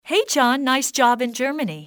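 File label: hey, english female; XBOX; civ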